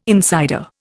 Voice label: "insider", Indian